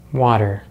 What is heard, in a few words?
"water", English; Consonants in American English; easypronunciation